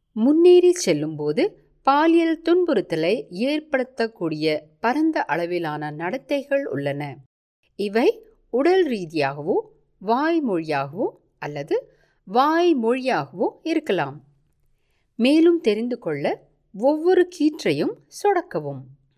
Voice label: Tamil F Explainer